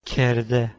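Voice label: "kerdhe", Proto-Indo-European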